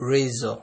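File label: "razor", Nigerian Pidgin, male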